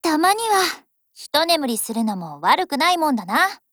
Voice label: FIX JP; AK47

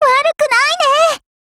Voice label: PHRASE, JP; SuperSASSMod

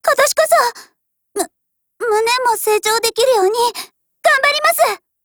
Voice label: JP; MP5; NEWYEAR

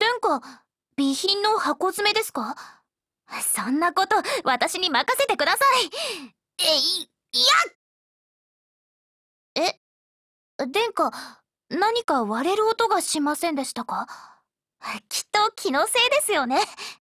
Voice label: DIALOGUE JP; M240L

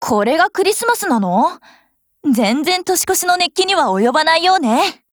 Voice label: CHRISTMAS, JP; 97typeSMod

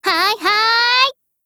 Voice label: JP; M1919A4; FORMATION

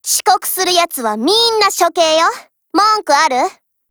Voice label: dialogue, JP; PPS43

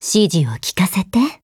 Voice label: JP; Loreley

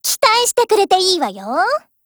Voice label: JP; MicroUZI; FORMATION

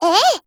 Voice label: AGREE JP; 63type

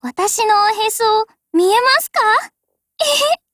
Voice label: DIALOGUE JP

